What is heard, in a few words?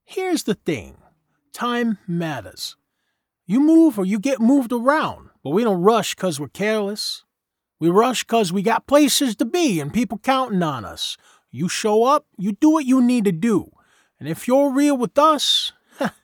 English New York City